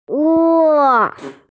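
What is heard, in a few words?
"re4", Mandarin Chinese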